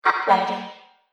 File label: Robot Voice Uploading; Robot Sound Effects; Sci-Fi Sound Effect